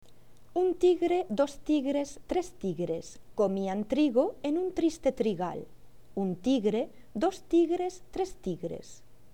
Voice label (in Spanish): "tigre", fonetica